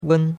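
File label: "wen1", Mandarin Chinese; Cours-de-Chinois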